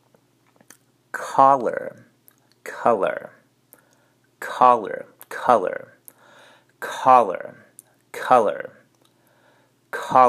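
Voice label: Collar color, English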